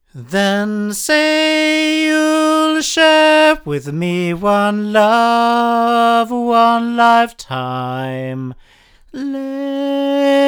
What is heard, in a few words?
CK-7 8 male; Avant